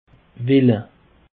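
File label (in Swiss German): "wille", Alsatian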